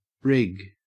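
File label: "rig", Australian English; pronunciations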